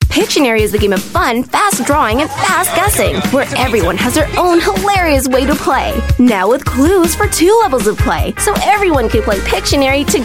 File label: US English Family Fun; Pictionary